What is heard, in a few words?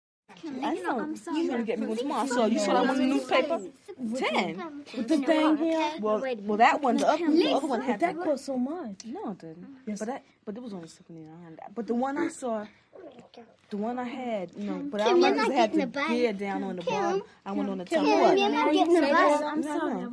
Group Kids Chatter